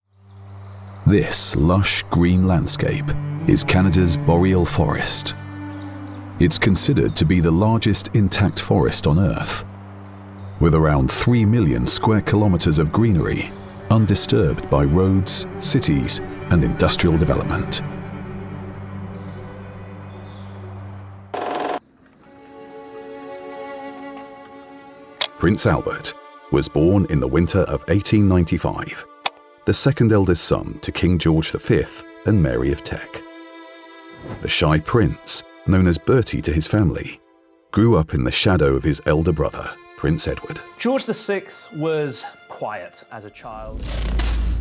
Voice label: Documentary Voice

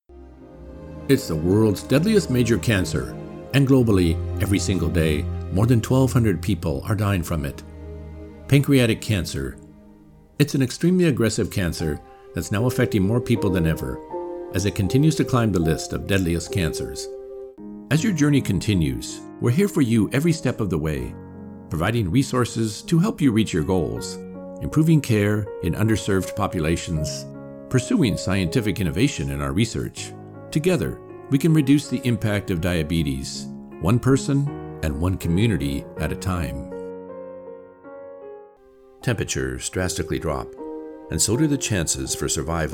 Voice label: PSA

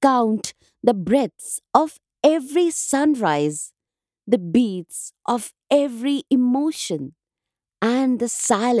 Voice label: Stories Documentory

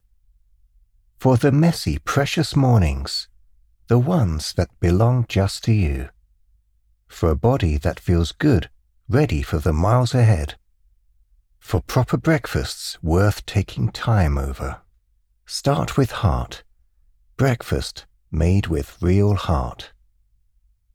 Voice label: british voice over breakfast cereal ad

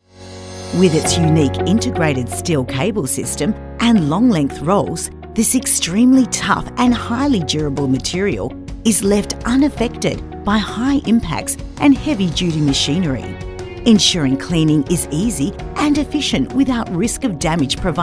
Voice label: e-learning

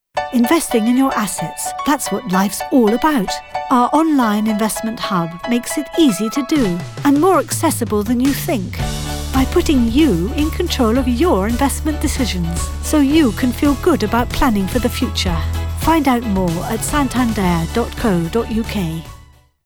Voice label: Financial services commercial

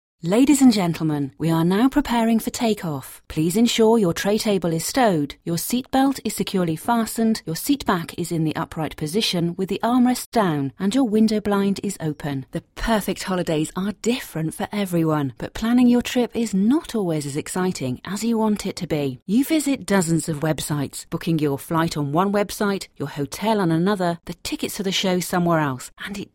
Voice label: Narrative

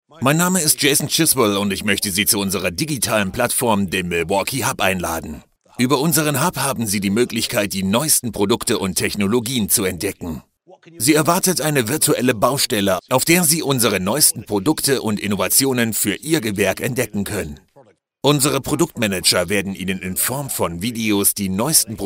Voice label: Dubbing; Milwaukee Tools

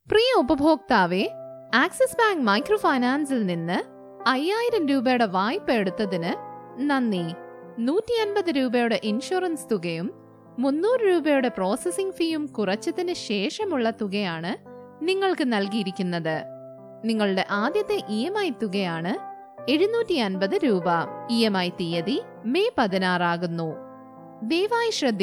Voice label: Malayalam IVR

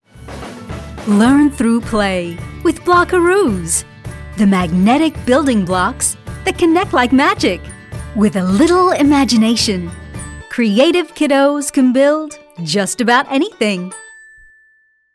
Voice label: American radio ad; Blockaroos

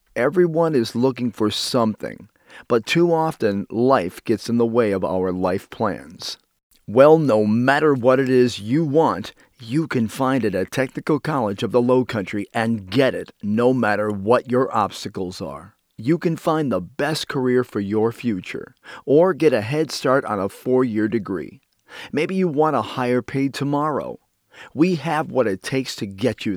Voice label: Radio Commercial; TCL

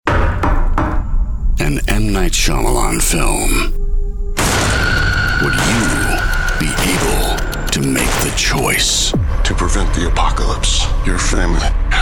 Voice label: Movie Trailer

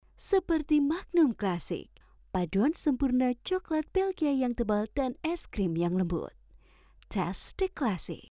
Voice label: female; magnum

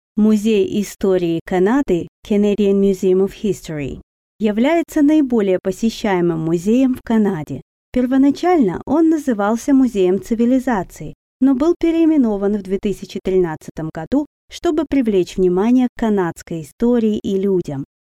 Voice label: Narration Russian

